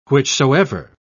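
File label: "whichsoever", British English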